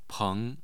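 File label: "peng2", Mandarin Chinese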